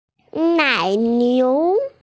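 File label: "nainiu", Mandarin Chinese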